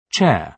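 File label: "chair", British English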